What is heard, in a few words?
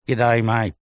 human male 50 years old australian says g day mate